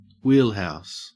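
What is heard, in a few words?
"wheelhouse" English, Australian; pronunciations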